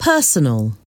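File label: "personal", English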